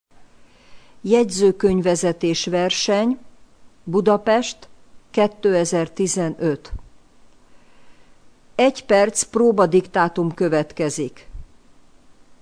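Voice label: Hungarian Practice, dictation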